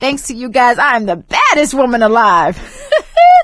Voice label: hurrah, survivor voice; Left 4 Dead 2; producer